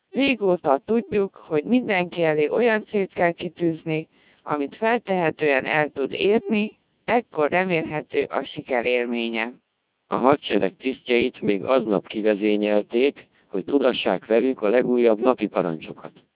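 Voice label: speech CODEC2 1600 bps, Hungarian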